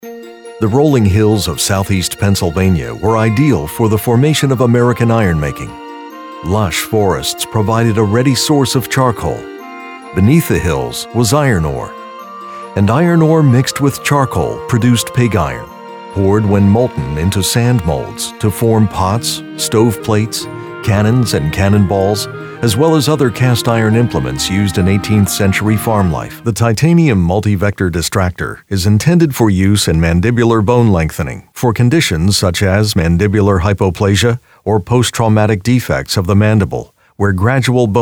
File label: Narration